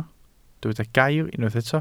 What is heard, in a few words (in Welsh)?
"gair", Welsh